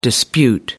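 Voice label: "dispute", English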